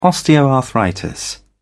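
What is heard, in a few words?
"osteoarthritis", British English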